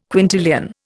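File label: "quintillion", Indian English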